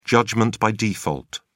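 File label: "judgement by default", British English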